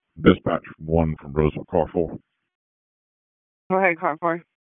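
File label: fire department dispatch, p25; wake p25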